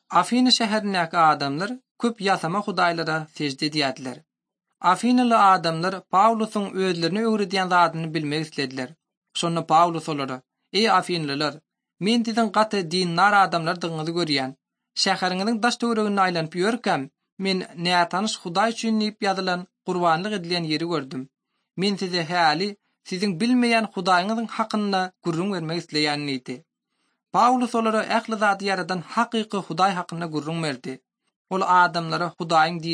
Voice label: turkmen, bible translation drama; ot drama; turkmen institute for bible translation; acts of the holy spirit